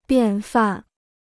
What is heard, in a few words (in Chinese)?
"便饭", Chinese